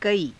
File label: "kaii", Hindi